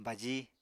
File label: "baji", Apatani